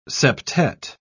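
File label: "septette", British English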